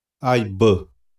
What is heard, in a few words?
"aibö", xavante